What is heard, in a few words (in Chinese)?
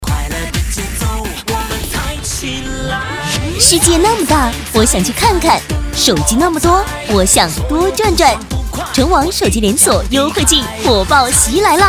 女-促销广告-手机连锁; 淳网手机连锁